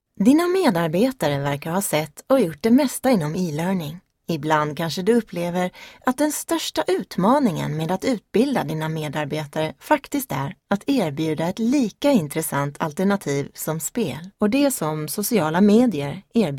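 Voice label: Swedish, female, e-learning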